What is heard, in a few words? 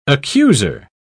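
"accuser", British English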